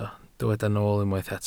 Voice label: "nool", Welsh

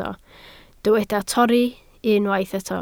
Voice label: "torri", Welsh

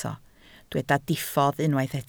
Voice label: "diffodd", Welsh

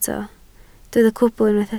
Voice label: "cwbl", Welsh

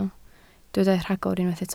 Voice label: "rhagor", Welsh

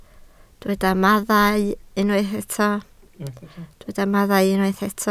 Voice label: "mathau", Welsh

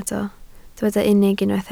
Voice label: "unig", Welsh

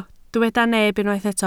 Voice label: "neb", Welsh

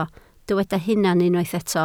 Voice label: "hunan", Welsh

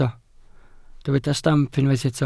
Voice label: "stamp", welsh

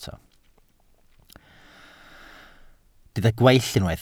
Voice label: "gweill", Welsh

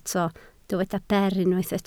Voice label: "ber", Welsh

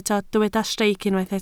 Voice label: "streic", Welsh

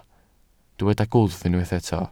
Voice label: "gwddf", Welsh

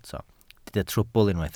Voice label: "trwbl", Welsh

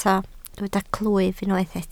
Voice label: "clwyf", Welsh

